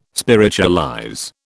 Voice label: "spiritualize", British